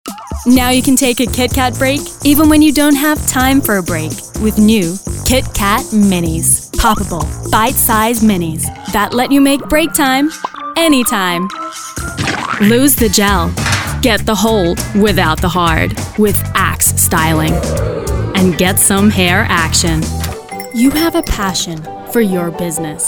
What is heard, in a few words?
American